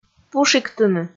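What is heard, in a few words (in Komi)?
"пушиктыны", Komi, female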